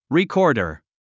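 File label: "recorder", English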